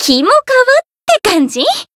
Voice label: encount, jp